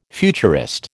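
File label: "futurist", American English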